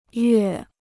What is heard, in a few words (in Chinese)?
"月", Chinese